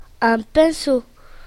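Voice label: "paintbrush", French; classroom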